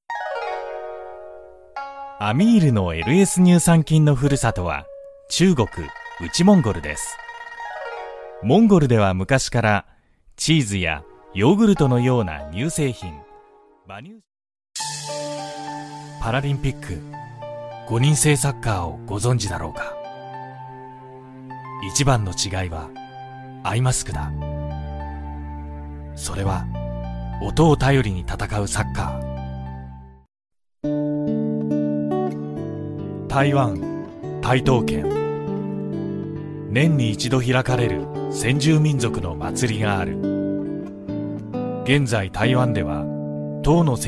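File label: male, Japanese, corporate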